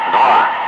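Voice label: Russian speech, Ka-50 Wingman; Digits; DCS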